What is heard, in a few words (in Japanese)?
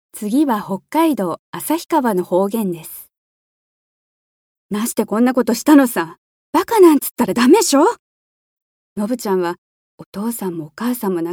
方言